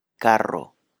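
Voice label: "carro", Spanish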